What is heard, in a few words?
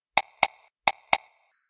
self assured slow